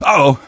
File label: "uh oh" male; Source Engine; video game; npc